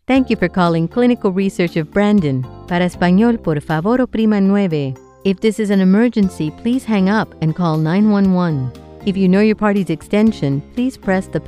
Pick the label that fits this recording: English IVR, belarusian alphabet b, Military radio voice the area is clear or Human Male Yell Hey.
English IVR